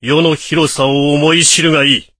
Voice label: voice, dubbing